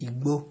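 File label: "igbo", Nigerian Pidgin, male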